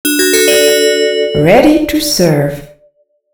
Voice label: female startup